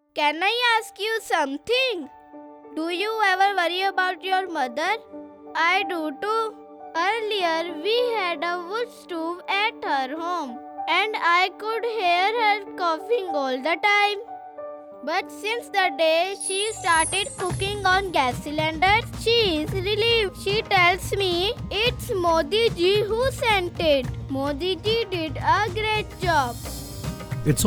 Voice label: Ujjwala Radio English